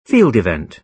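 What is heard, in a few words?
"field event", British English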